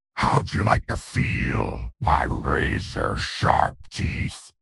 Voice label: upset; fertig classic games; croc